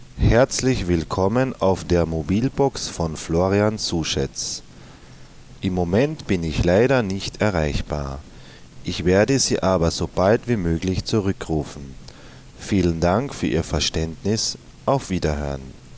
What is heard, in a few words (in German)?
ansage